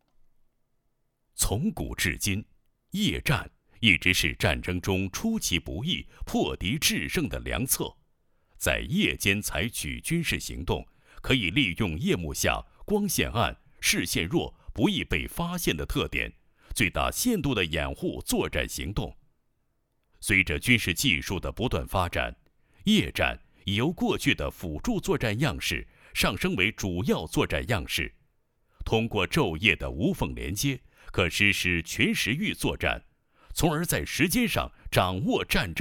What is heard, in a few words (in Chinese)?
男 解说; 战争黑夜精灵; 照明弹